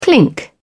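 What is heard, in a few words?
"clink", British English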